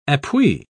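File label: "appui", British English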